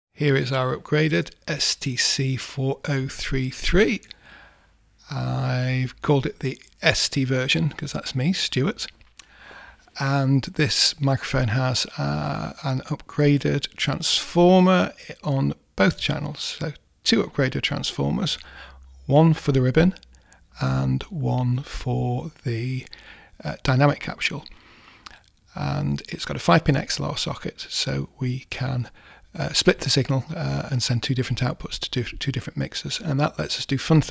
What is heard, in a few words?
Voiceover